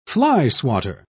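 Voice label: "flyswatter", British English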